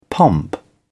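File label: "pomp", British English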